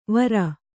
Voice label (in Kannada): "ವಾರು", Kannada